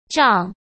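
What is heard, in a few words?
"账" Mandarin Chinese; hsk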